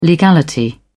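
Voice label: "legality", British English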